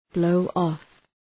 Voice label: "blow off", English